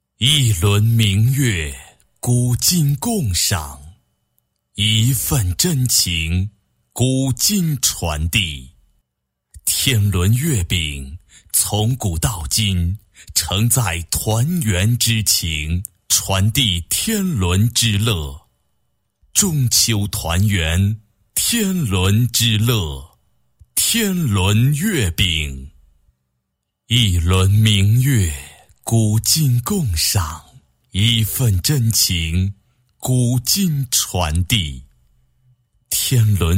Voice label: 男40 抒情广告 月饼, 国语男声配音

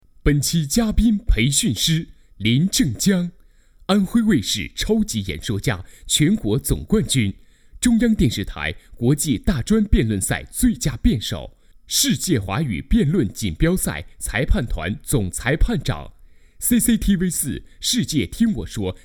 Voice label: 男, 大气端庄; 安徽卫视; 超级演说家

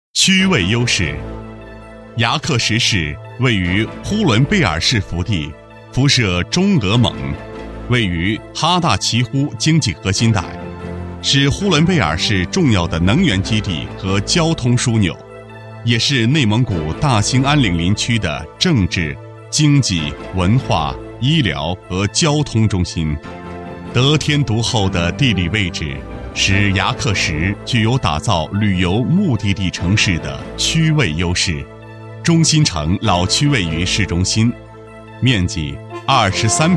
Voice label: 男, 国语男声配音, 男高音; 地域介绍

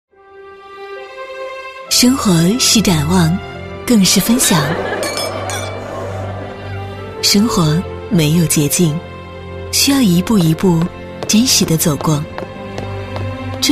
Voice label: 女, 广告, 电台报时篇; 银丰唐郡

